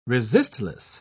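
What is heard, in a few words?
"resistless", British English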